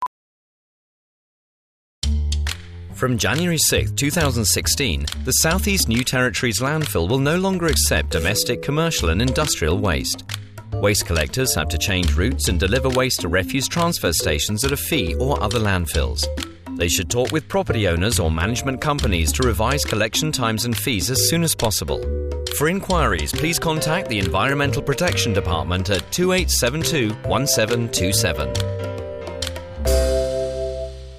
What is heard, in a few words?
radio English